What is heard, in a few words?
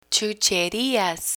"chucherias", Spanish